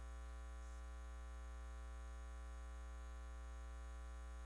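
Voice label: "cube", spoken word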